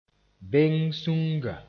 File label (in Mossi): "beng-sũnga", moore; bean field